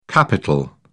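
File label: "capitol", English, British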